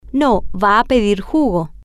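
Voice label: no He Is Going To Ask For Juice, Spanish, female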